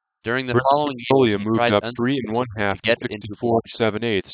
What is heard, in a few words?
male male